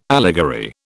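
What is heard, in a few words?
"allegory", British English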